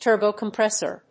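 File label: "turbo-compressor", English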